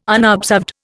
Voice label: "unobserved", Indian